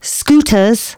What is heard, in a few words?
female, announcements